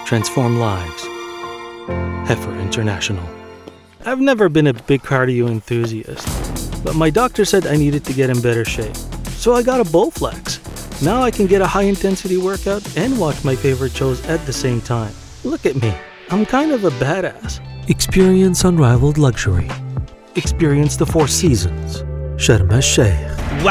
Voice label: male french canadian english with undetectable accent commerci